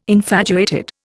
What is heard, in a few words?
"infatuated", Indian